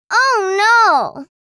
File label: "Oh no", girl child kid